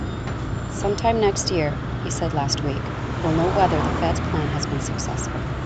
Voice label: mixed noisy